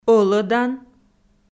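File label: "ӧлӧдан", Komi, female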